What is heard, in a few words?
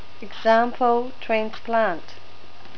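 example, New Zealand English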